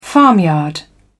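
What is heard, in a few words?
"farmyard", British English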